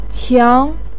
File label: "qiang2", Chinese pinyin; 小; Xiǎo Qiáng